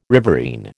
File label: "riverine", American